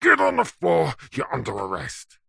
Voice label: human male uk police officer says get on the floor your under arrest; zapsplat